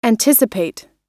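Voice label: "anticipate", English; oxford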